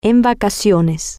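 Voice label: on vacation, Spanish, female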